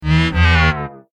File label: Sleepy Voice